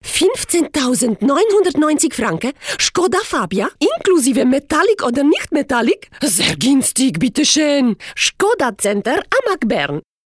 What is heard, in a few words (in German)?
Akzent Ost DE